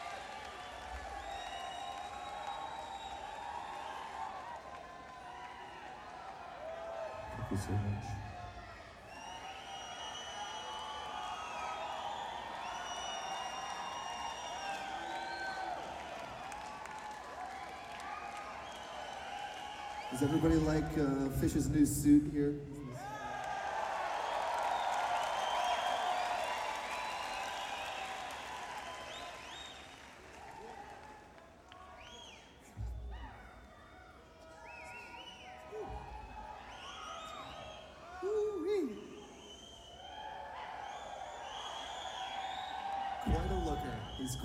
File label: banter